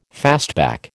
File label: "fastback", American